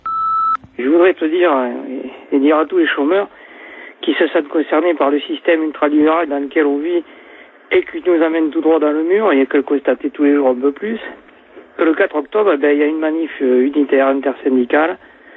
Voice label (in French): repondeur; Manif unitaire 4 octobre